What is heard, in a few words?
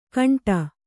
"ಘಂಟೆ", kannada